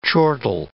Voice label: "chortle", English

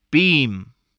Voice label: "beam", English

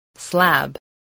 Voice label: "slab", voice, spelling

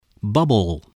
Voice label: "bubble", English

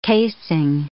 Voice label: "casing", English

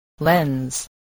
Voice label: "lens", spelling

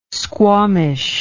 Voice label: "squawmish", English

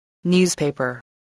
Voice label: "newspaper", voice

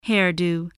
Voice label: "hairdo", spelling

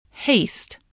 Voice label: "haste", English, spelling

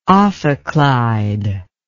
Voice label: "ophicleide", English

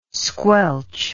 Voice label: "squelch", English

